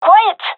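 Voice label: request quiet, gendarmerie female; vo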